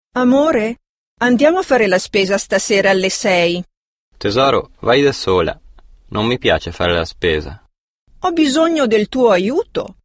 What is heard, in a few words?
dialog